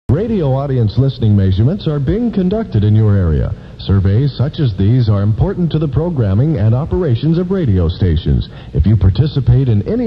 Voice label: arbitron announcement

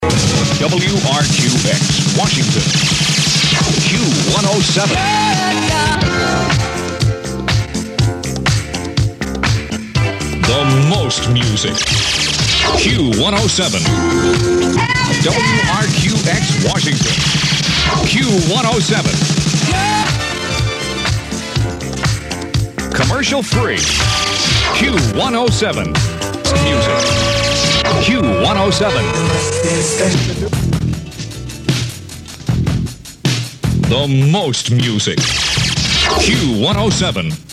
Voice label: imaging elements; q107; summer

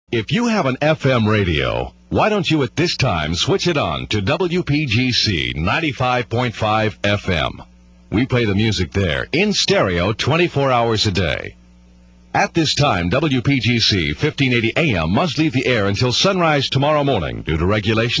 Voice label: am sign off announcement

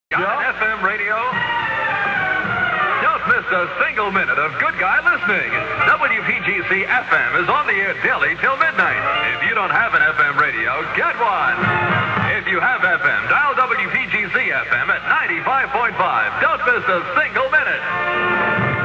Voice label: promo; 1966; got an fm radio

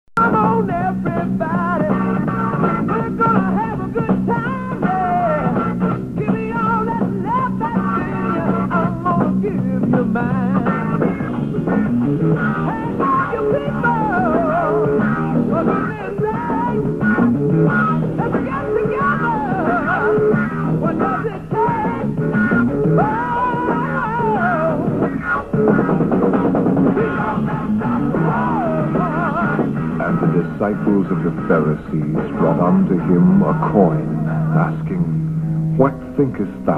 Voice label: spot; 1971

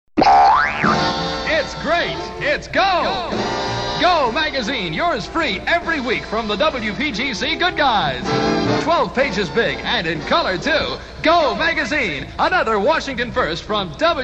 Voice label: promo; go magazine

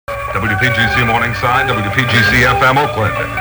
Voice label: sweeper legal id

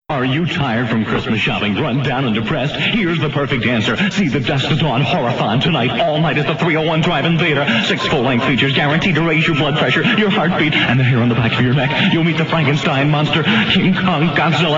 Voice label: spot; drive in theatre horror fest

